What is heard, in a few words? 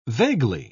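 "vaguely", British English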